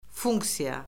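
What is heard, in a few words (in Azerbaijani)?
"funksiya", Azerbaijani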